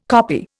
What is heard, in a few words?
"koppie", Indian